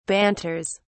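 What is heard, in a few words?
"banters", British English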